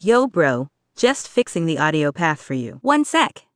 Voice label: voice message